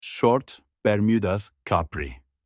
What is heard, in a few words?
shorts in turkish